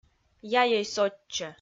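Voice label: "яйӧй сотчӧ", Komi, female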